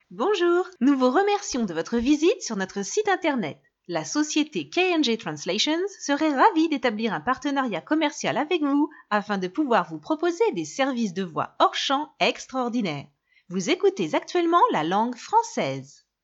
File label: french female